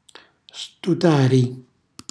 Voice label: "stutari", Calabrese; cucina calabrese

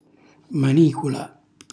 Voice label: "manicula", Calabrese; mestola; cucina calabrese; Glossario

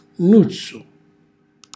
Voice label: "nnuzzu", Calabrese; cucina calabrese; Glossario

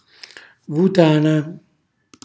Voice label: "vutana", calabrese; sacco, pagliericcio; cucinacalabrese; glossario

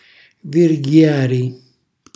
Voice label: "virghiari", calabrese; cucinacalabrese; glossario

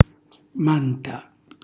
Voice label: "manta", Calabrese; un sacco di; cucina calabrese; Glossario

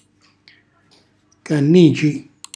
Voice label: "cannici", Calabrese

